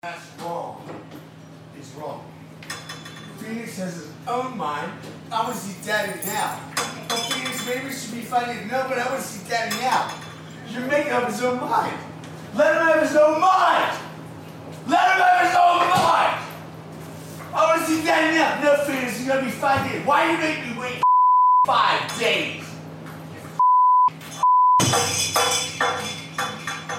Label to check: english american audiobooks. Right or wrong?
Wrong